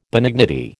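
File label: "benignity", American English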